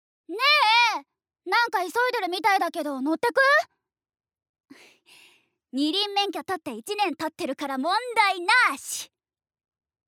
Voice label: Nomal girl